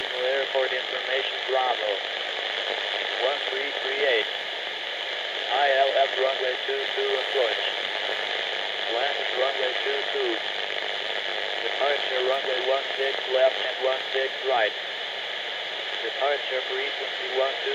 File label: atis typhoon; rjtt; x-plane10